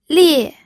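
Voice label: "lie", fourth tone, pinyin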